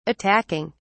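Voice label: "attacking", English, British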